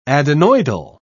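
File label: "adenoidal", British English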